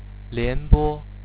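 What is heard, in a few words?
"lianbo", Chinese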